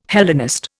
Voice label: "Hellenist", Indian